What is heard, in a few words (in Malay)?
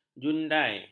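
"jundai", Malay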